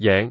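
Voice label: "jeng5", Cantonese; microsoft Wan Lung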